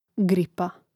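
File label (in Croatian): "gripa", Hrvatski; mreznik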